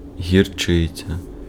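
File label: Ukrainian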